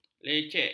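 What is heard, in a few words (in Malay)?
"lecek", Malay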